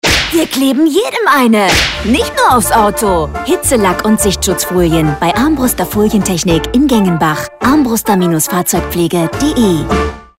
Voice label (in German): Folien Musik, werbesprecherin